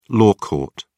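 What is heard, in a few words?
"law court", British English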